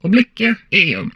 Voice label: humanoid female; Stellaris; humanoids